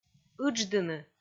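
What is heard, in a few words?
"ыдждыны", Komi, female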